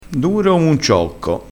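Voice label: "acciocchito", Italian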